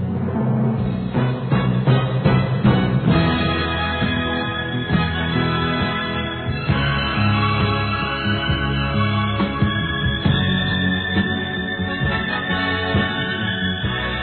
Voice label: Amigo para Amiga m, Telemensagens; masc; Telemensagens 2017

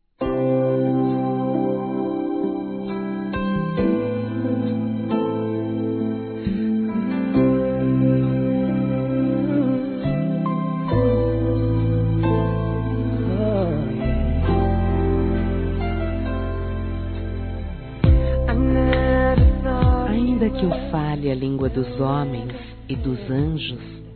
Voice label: Telemensagens Amor Fem; Telemensagens 2017

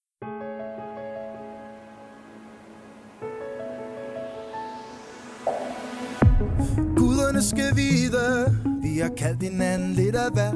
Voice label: Aniversário Atrasado (da Colega de Trabalho) Masculino; Aniversários; Aniversários diversos

